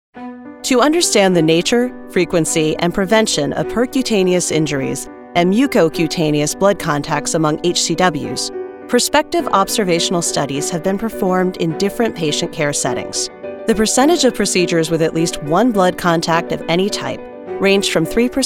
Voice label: medical, voiceovers